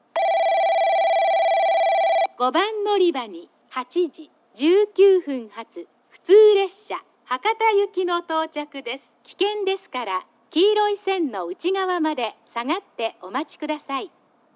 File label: yoshizuka local hakata; 5番のりば接近放送（普通 博多行き）; 吉塚